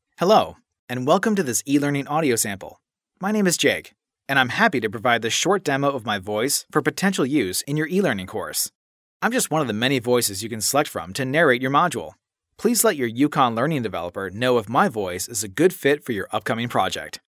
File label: Formal, voice talent